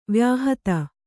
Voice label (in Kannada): "ವಾಯುಹತಿ", Kannada